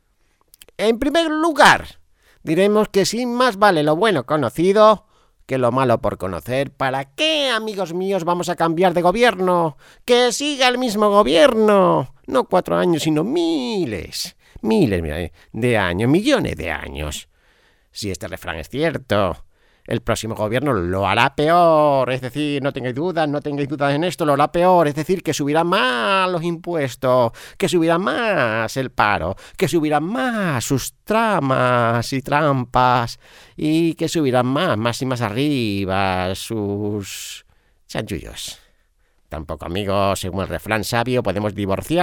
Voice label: brotes verdes guion radio

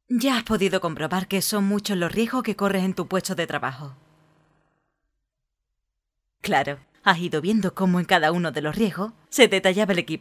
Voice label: locutora andaluza

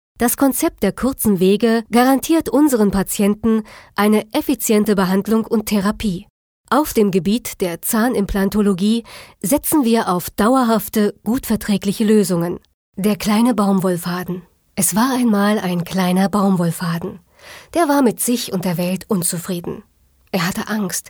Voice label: locutora alemana; Deutsche Sprecherin

